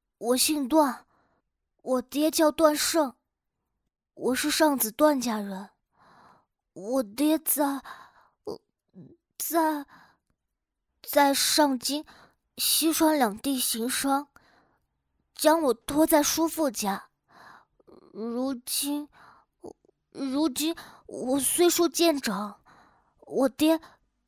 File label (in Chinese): 正太 幼年女